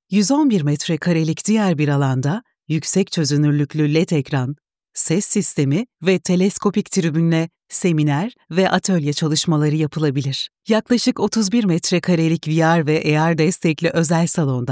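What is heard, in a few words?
Turkish female training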